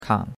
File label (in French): "kang3", chinois; Cours-de-Chinois